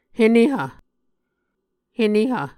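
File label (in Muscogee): "henehv", Muscogee word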